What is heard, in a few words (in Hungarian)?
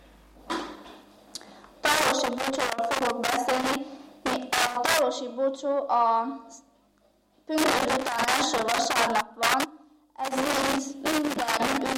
nyelvjaras gyujtesek